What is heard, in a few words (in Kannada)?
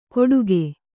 "ಕೊಡುಗೆ", Kannada